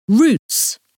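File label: "roots", English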